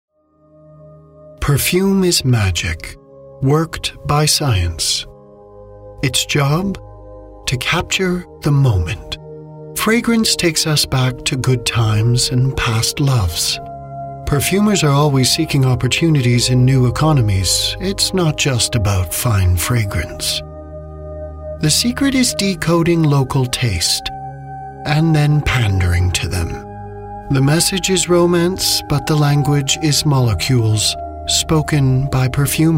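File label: documentaries, American English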